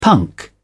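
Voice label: "punk", British English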